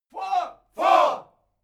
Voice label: military shout english four